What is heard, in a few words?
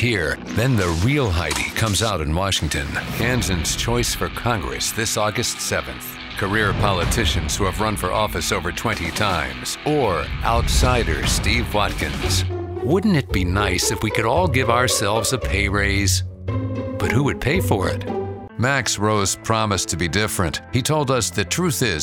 english american political ads; North American English